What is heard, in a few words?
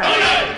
"okay", expletives